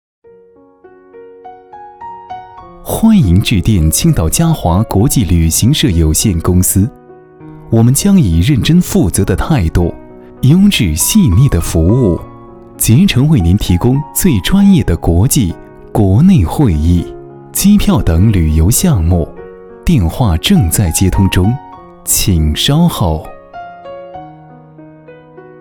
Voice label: 男 彩铃 温暖彩铃

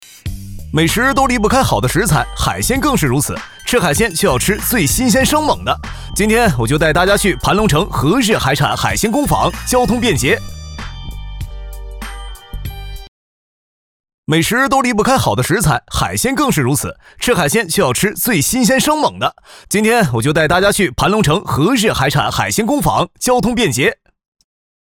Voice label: 男,抖音,抖音探店

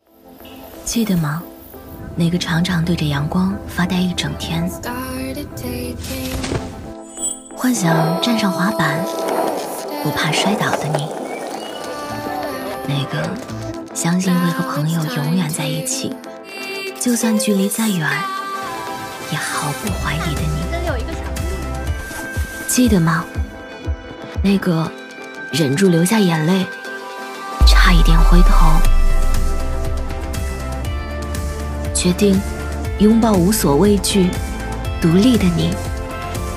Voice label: 女 广告 高级感独白走心; 包包; 山下有松

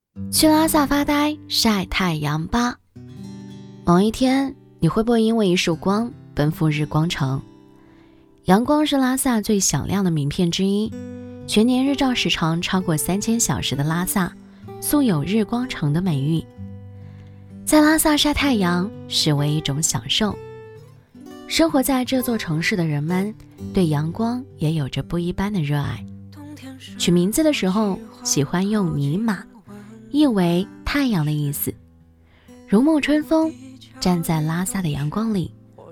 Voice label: 女 拉萨音; 模仿房琪

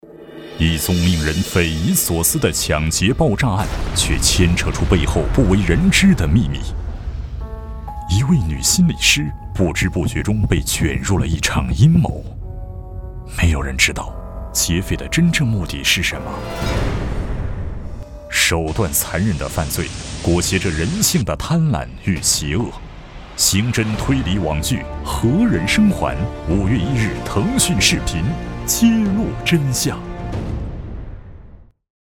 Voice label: 男 悬疑; 何人生还

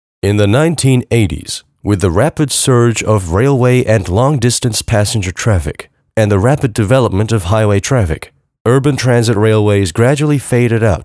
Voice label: 中英文对照; 市域铁路

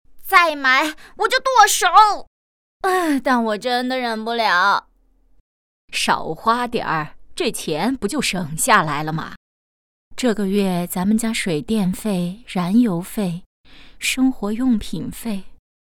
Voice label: 女 角色 女儿妈妈妻子

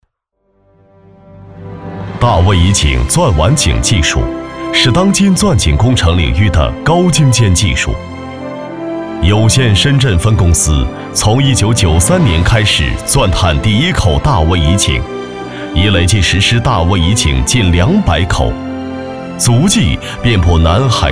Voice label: 男 专题 大气 跨越珠峰

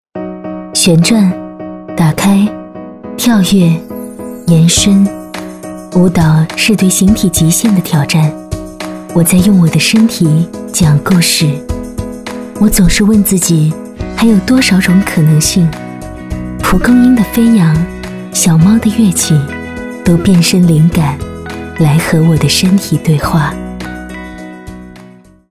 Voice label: 女 旁白; 奥迪

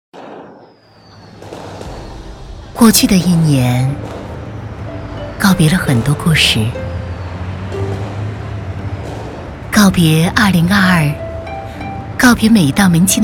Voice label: 女 温暖; 复地新年

